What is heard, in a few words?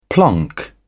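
"plonk", British English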